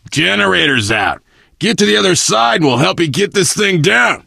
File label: initial meeting, survivor voice biker